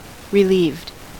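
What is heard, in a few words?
"relieved", US English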